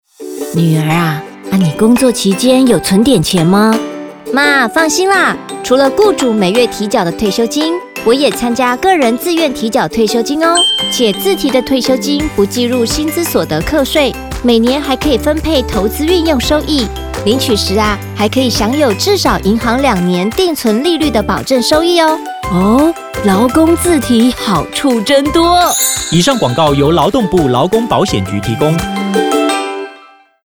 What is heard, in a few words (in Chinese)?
個人自提退休金好處多 國語; 勞動部